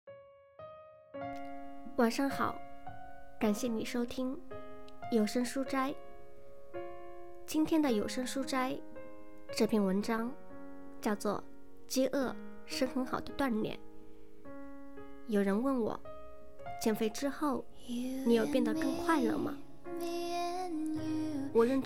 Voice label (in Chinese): 电台